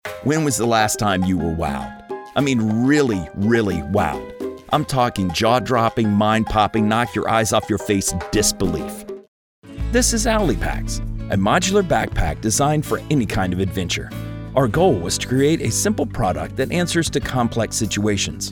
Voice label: Guy Next Door

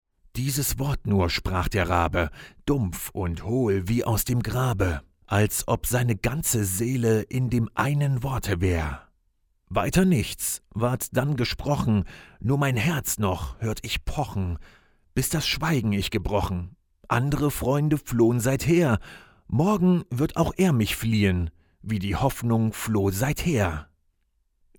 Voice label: Audiobook; Edgar Allan Poe; The Raven

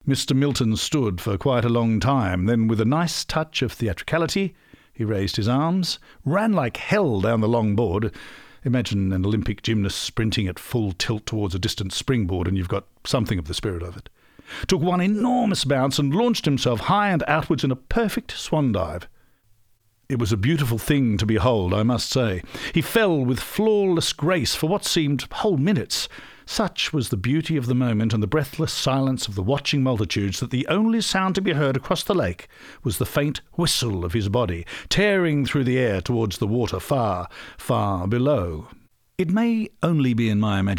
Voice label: Audio Book Read; The Thunderbolt Kid

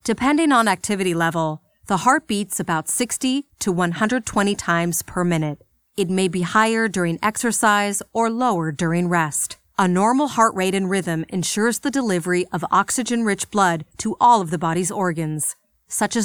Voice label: MEDICAL NARATION

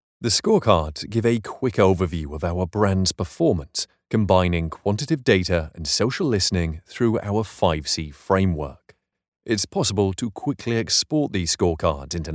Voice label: E-Learning

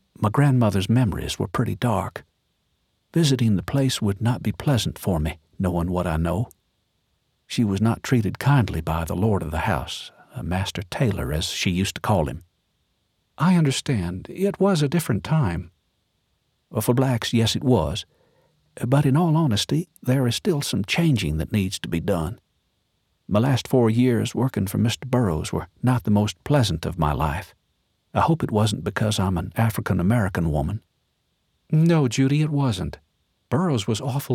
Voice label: two women

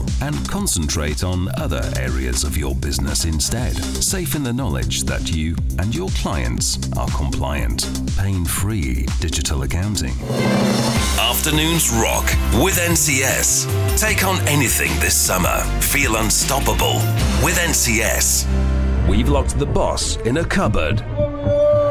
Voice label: announcer

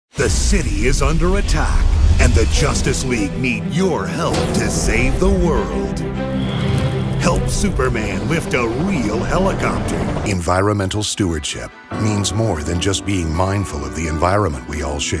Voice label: commercials

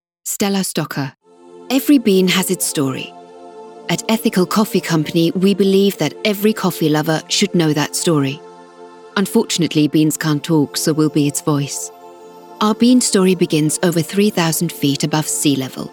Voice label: corporate

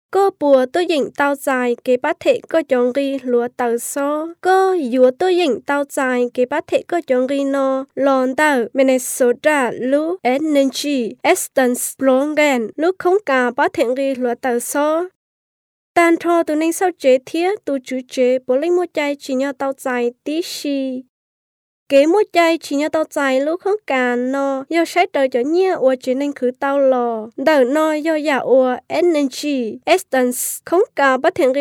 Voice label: HMONG FEMALE

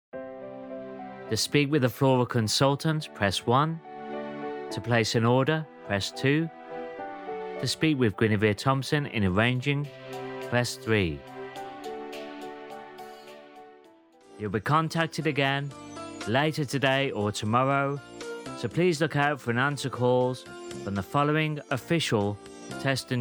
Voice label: TELEPHONY

